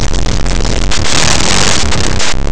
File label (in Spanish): "debido", aproximants; laboratori fonetica